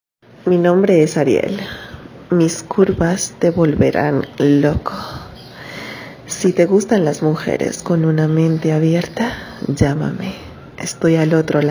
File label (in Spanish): Locución